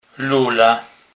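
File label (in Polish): "lola", Kurpie dialect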